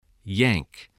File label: "yank", voice, english